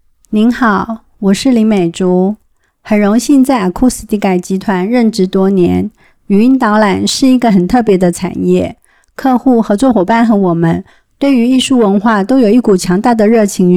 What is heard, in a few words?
CN